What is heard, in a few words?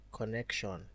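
"connection", Nigerian Pidgin, male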